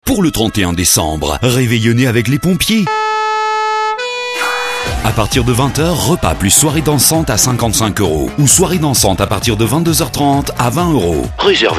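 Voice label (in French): spot, spots radio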